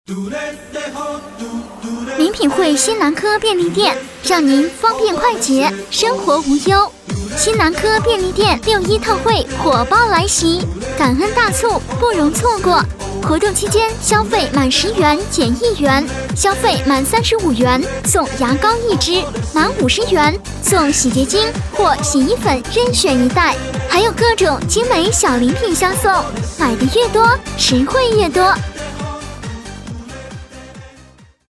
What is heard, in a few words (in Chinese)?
促销便利店